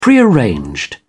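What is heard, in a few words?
"prearranged", British English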